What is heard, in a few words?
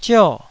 "zio4", Taiwanese syllable